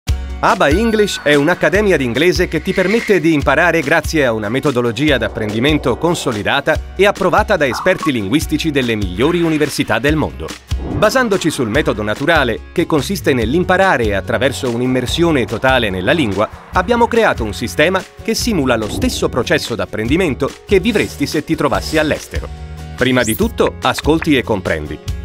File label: commercial, italian, male